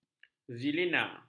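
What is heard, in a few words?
"xilena", Malay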